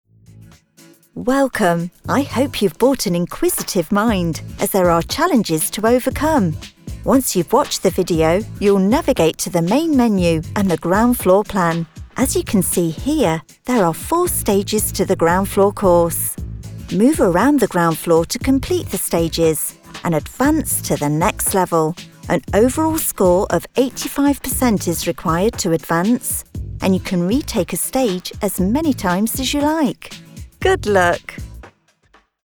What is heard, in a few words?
Explainer Instructional